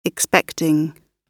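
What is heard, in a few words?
"expecting", British English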